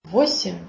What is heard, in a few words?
Belarusian